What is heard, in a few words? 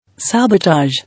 "Sabotage", English